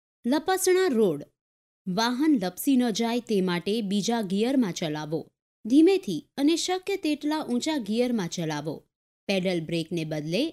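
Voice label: Gujarati female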